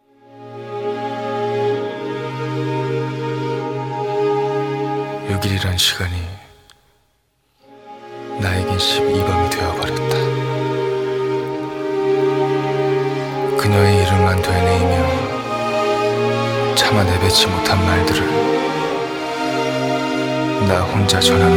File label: Intro (Narration); 조한선